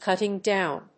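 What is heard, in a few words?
"Cutting down", English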